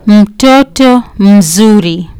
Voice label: "mtoto mzuri", Kiswahili